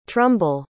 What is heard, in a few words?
"trumble", pronounce